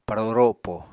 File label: "paro ropo", Apatani